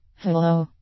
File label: hello female